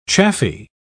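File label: "chaffy", British English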